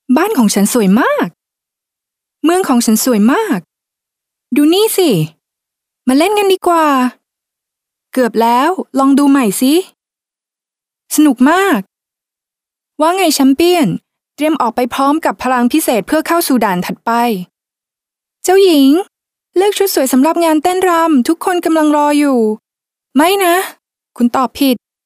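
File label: Thai female children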